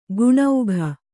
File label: "ಗುಣೌಘ", Kannada